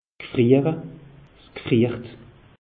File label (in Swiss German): "gfriere", alsace; meteo